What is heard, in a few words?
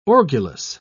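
"orgulous", British English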